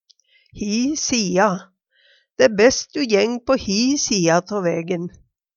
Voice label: "hi sia", Numedalsmål; Dæ e bæst du jeng på hi sia tå vægen.

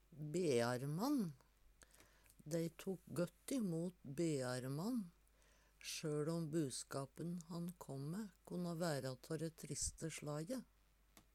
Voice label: bearmann, Numedalsmål; Det var berre vaksne karar som hadde dette vervet.

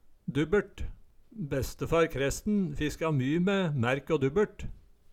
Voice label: "dubbert", Numedalsmål

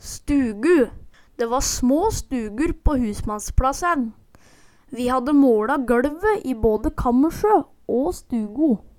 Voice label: stugu, Numedalsmål

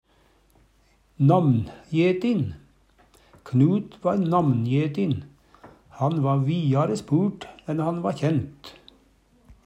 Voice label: namnjetin, Numedalsmål; Adjektiv

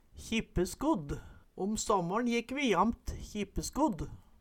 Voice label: kjippeskodd, Numedalsmål

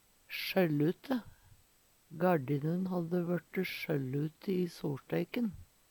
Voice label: sjollute, Numedalsmål; sjøllute; Gardinun hadde vørte sjøllute i soLsteiken.; Adjektiv; Veggli

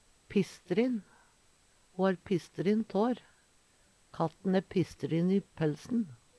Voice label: pisstrin, Numedalsmål; Katten æ pisstrin i pelsen.; Adjektiv

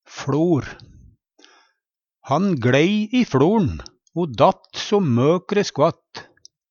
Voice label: fLor, Numedalsmål; Han gLei i fLor'n o datt så møkre skvatt.; Substantiv hankjønn

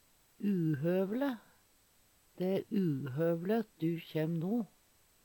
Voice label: "uhøvele", Numedalsmål; De e uhøvele at du kjem no.; Adjektiv

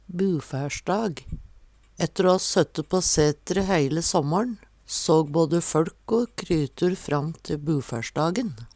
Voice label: "bufæsjdag", Numedalsmål

